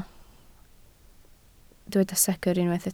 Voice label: "secr", Welsh